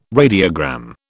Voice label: "radiogram", British